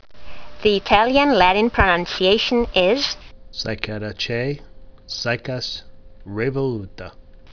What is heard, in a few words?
latin; Cycas revoluta